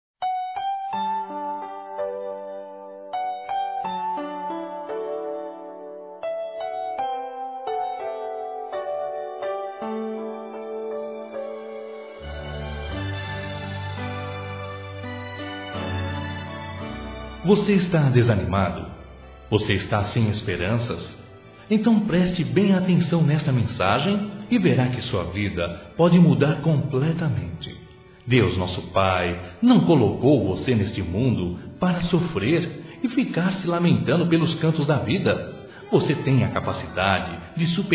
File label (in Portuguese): Otimismo masculino; Telemensagens